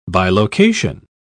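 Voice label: "bilocation", English, uk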